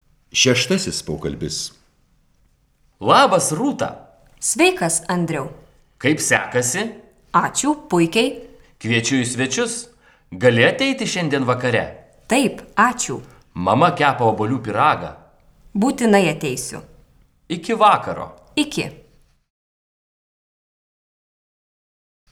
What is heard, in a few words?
Dialog